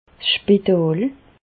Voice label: "spital", Bas Rhin; medecine

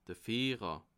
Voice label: "fihrer", alsace

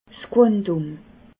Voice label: "quantum", alsace

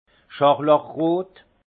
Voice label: "scharlachrot", alsace; vin; pourpre

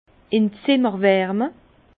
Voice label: "zimmerwarme", Bas Rhin; vin; chambrer

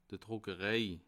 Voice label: "druckerei", alsace

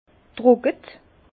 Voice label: "trocke", Bas Rhin

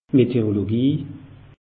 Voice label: "meteorologie", alsace

